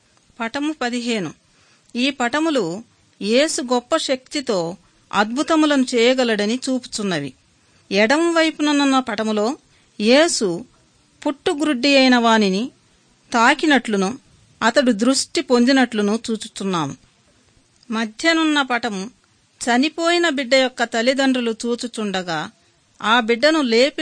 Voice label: good news telugu